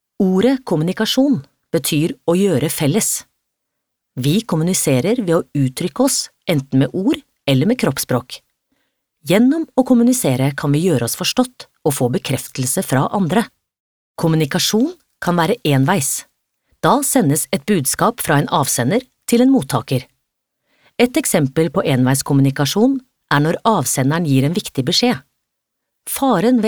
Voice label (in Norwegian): no forklarende